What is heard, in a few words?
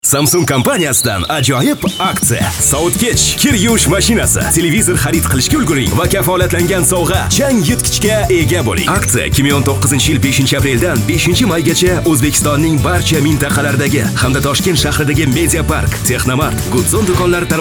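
spring mood uz, Uzbek; from samsung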